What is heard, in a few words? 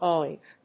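"oink", English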